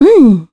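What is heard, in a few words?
voice Deny, Korean; heroes; Scarlet; kings raid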